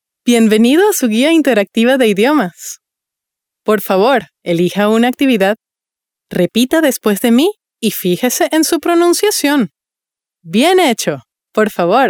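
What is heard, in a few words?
female corporate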